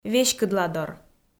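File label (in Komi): "веськыдладор", Komi, female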